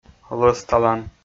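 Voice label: "лӧсталан", Komi, male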